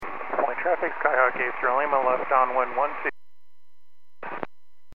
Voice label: Aviation 122.7; KSTE